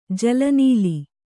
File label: "ಜಲನೀಲಿ", Kannada